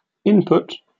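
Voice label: "input", British English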